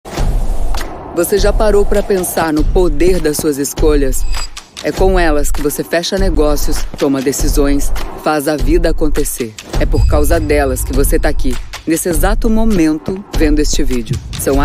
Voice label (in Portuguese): Manifesto impactante contundente empoderada; Bioritmo